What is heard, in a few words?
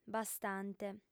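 "bastante", female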